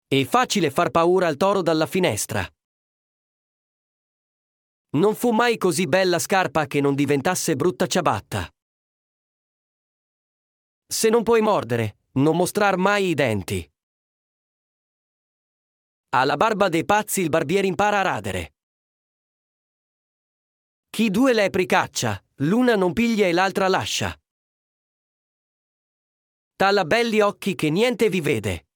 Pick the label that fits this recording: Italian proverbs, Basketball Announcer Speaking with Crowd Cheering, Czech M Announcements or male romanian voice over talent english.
Italian proverbs